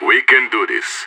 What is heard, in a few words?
pep talk; idf; vo